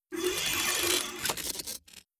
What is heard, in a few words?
robot molluscoid greetings